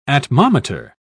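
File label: "atmometer", English, uk British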